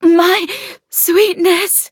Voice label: hit, en; Cookie Run